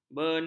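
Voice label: "benah", Malay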